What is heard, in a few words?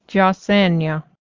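you two get dressed, Cayuga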